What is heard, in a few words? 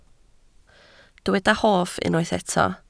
"hoff", Welsh